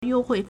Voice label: "you1 hui4", Chinese